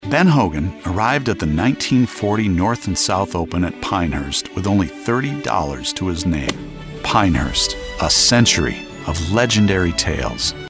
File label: Conversational Explainer